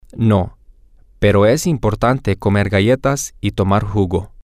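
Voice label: No but it is important to eat cookies, Spanish, male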